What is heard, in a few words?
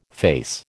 "face", American